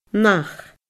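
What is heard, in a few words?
"nach", Scottish Gaelic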